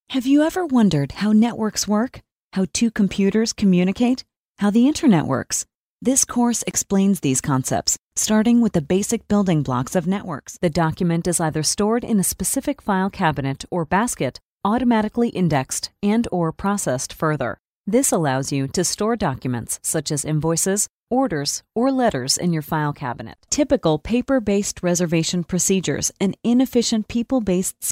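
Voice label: elearning demo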